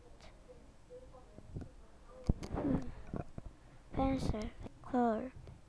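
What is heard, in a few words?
"pencil", spoken word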